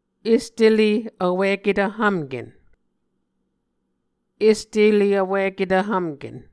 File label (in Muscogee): "est ele vwiketv hvmken", Muscogee; Mvskoke Words with Voicing Consonants; A Dictionary of Creek/Muskogee; SIL International; Onvkv Ohhvyayices